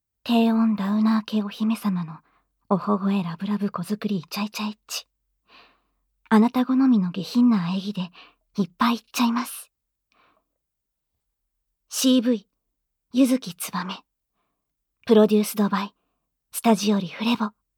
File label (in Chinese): 报幕